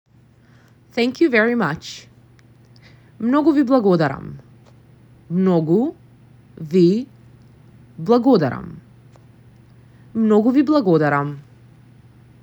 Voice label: thank you very much, macedonian; the talking macedonian phrasebook